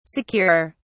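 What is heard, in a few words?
"securer", British English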